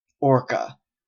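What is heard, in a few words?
"orca", Canadian English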